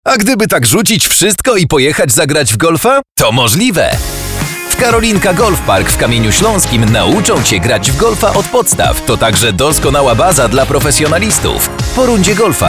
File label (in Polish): spot; karolina golf park; radia doxa